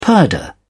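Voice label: "purdah", British English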